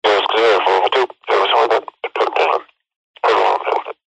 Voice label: technology cb radio male voice unrecognisable speech speaker pov; zapsplat